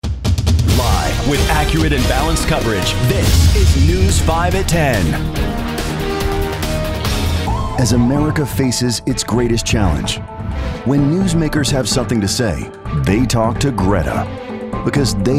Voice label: TV promo

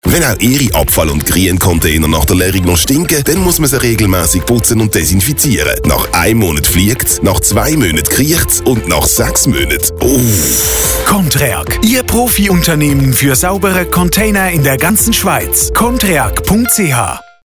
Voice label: Radiospot; Contreag

